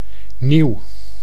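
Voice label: "nieuw", Dutch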